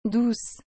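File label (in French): "douce", French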